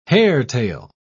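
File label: "hairtail", British English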